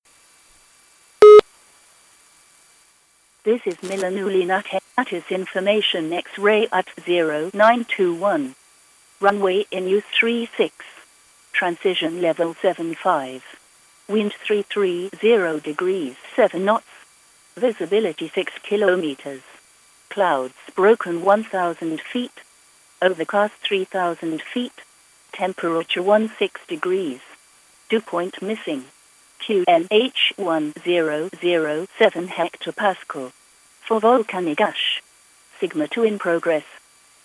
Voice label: ATIS Milano